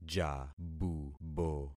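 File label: "jabubo", concatenated syllables; Affixing experiment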